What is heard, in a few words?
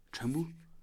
"saliva", Kham